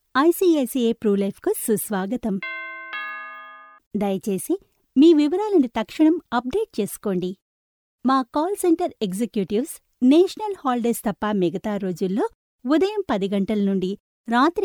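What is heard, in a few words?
telugu female voice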